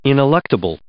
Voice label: "ineluctable", English

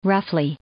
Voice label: "roughly", English

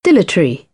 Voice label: "dilatory", British; AmericanBritish

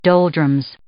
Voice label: "doldrums", English

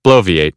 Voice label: "bloviate", American English; oʊ - əʊ

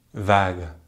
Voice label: "vague", French; easypronunciation

